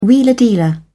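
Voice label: "wheeler dealer", British English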